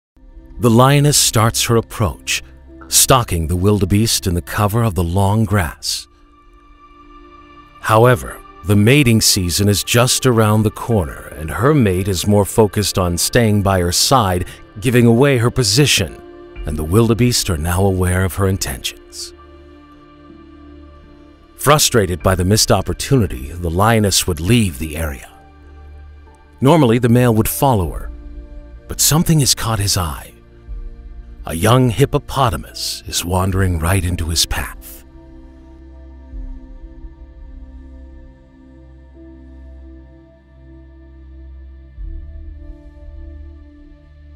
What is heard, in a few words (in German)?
Dokumentarfilm Erzähler; NATIVE PROFISPRECHER